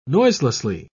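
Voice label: "noiselessly", British English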